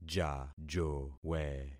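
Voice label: "jajowe", concatenated syllables; Affixing experiment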